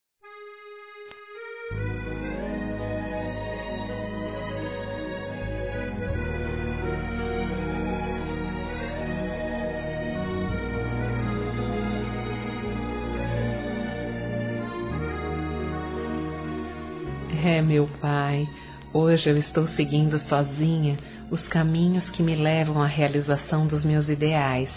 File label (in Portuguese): Saudades Pai Feminino; Telemensagens